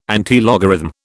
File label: "antilogarithm", British